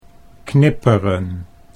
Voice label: "knipperen", Dutch

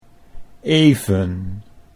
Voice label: "even", Dutch

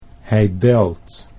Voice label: "hij belt", Dutch

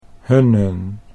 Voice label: "Hunnen", Dutch

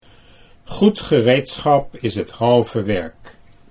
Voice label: goed gereedschap is, Dutch; Goed gereedschap is het halve werk; good tools make a job much easier

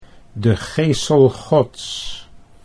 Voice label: de Gesel Gods, Dutch

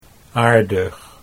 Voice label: "aardig", Dutch

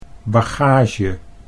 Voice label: "bagage", Dutch

